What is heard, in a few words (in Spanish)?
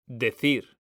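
"decir", Spanish; mekanta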